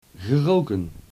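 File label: "geroken", Dutch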